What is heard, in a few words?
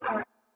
female aright; project legacy; sound kit; nova sound